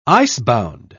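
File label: "icebound", British English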